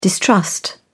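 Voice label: "distrust", British English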